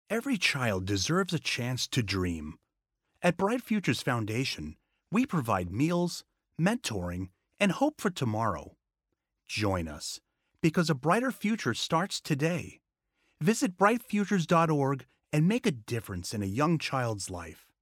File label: Commercial sincere; Sincere / Charitable